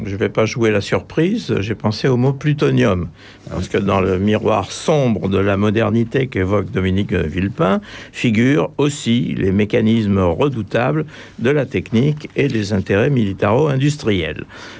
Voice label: dry